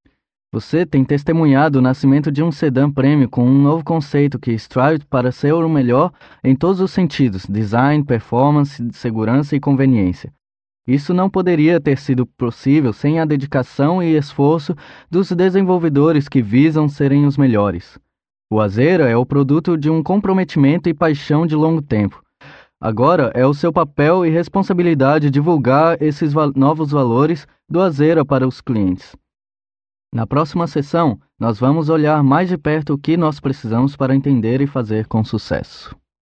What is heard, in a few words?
Portuguese BRA M